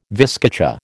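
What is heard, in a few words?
"viscacha", American English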